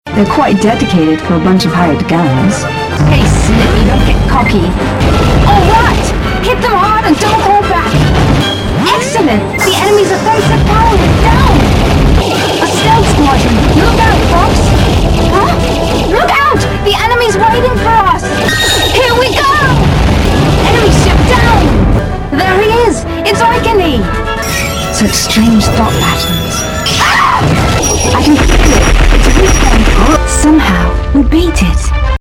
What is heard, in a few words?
Voice English; Assault; Fortuna